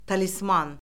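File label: "talisman", Azerbaijani; Orfoqrafiya Lüğəti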